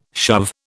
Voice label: "shove", British